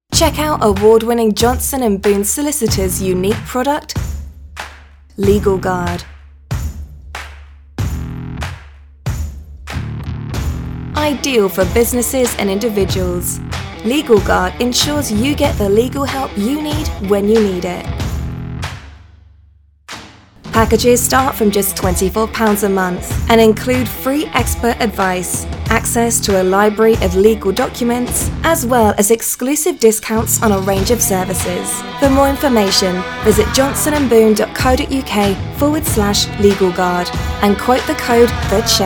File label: Podcast Advert; Legal Guard